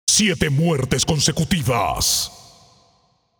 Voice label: Announcer Spree 7 Kills